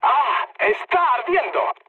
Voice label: on fire mood low; War Thunder